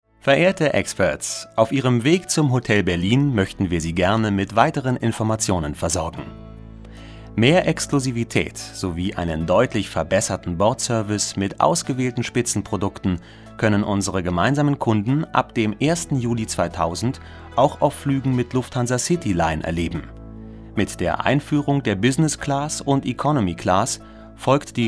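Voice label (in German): deutsch, Telefonansagen männlich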